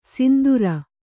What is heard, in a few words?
"ಸಿಂಧೂರಿ", Kannada